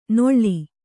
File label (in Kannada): "ನೊಳ್ಳಿ", Kannada